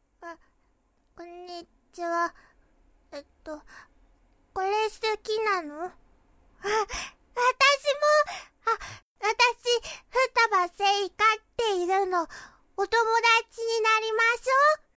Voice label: little girl; 幼女; 舌足らず幼女